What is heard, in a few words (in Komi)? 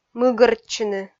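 "мыгӧртчыны", Komi, female; kpv audio dict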